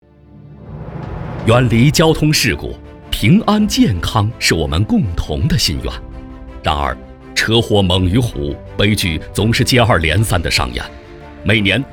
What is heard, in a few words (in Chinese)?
男 警示教育片; 电动车安全出行